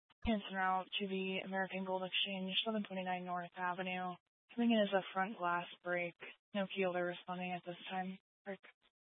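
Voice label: Police Dispatch, Scanner audio recording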